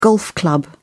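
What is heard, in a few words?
"golf club", British English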